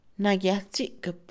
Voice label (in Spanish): Mixe, Mujer; discutir; INALI